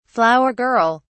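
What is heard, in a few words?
"flower girl", British English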